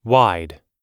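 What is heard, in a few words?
"wide", English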